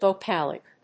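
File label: "vocalic", English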